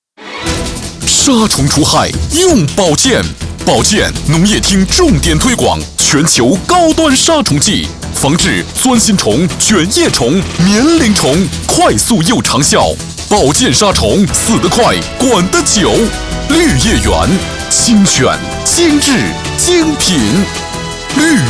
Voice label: 男国 广告 农化 力度; 力度类宝剑

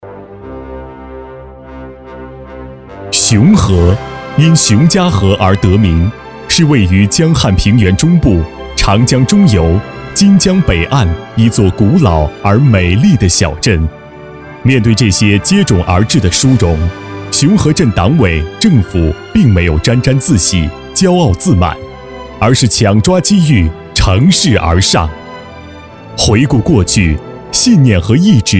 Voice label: 男国 专题 政府 浑厚; 龙腾沿江跃

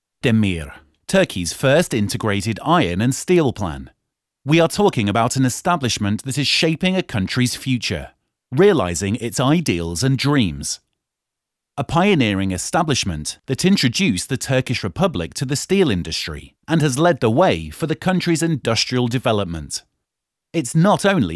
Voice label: 外籍男英 外语 英式英语 宣传片类 自然

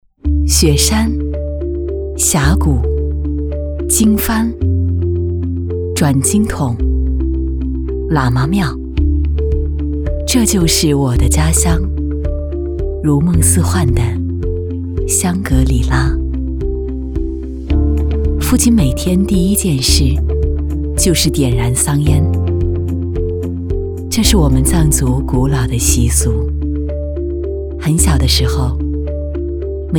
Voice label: 纪录片 人文历史 纪录片, 女国; 梦之行纪录片

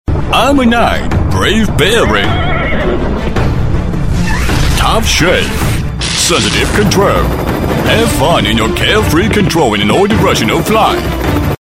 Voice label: 男英 其他 栏目 片头 活力; 英语

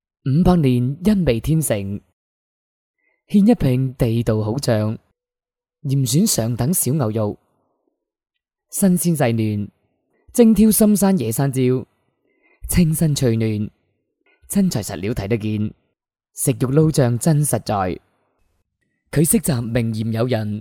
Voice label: 男粤 广告 食品饮料 稳重; 地道好酱; 粤语

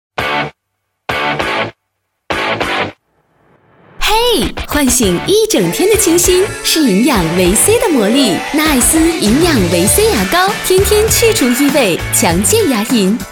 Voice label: 广告 品牌形象 牙膏 清新; 纳爱斯营养维c

